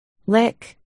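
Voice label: "lick", spoken word, articulation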